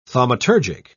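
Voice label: "thaumaturgic", British English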